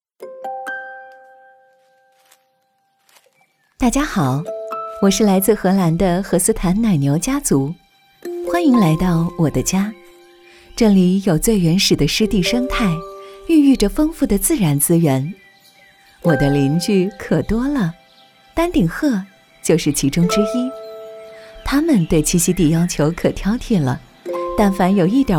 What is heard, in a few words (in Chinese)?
女; 飞鹤奶粉